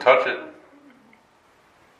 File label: Faint voice heard in basement